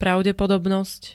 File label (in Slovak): "pravdepodobnosť", Slovenské